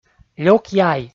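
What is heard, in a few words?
"лёк яй", Komi, male; kpv audio dict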